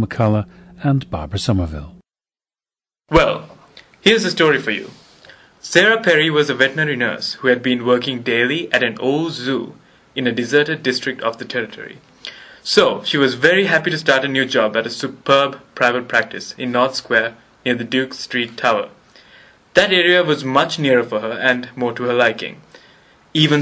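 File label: sri lanka, dialects of English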